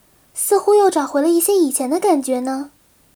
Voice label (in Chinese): 升级, BattleCry; 薇薇安